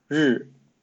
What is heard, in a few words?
Mandarin Chinese pronunciation "日"